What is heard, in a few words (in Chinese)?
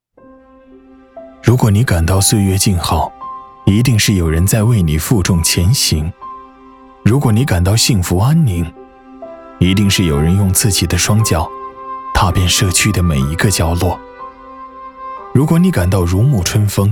男 走心; 谁说站在光里的才算是英雄